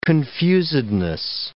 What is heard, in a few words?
"confusedness", English